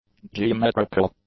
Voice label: "geometrical", english; adjectives